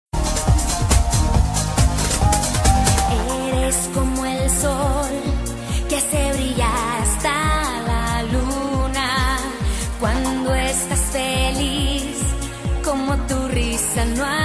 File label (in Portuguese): DIA DA VOVO, telemensagem; telemensagem dia dos avos